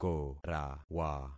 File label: "korawa", concat syllables; Affixing experiment